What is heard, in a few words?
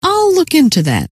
look into, scientist female